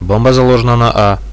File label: bomb here A, radio bot; Reallite